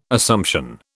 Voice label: "Assumption", British